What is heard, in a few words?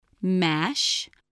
"mash", spelling voice